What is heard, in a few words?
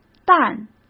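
"淡", Mandarin Chinese; chinese flashcards; hsk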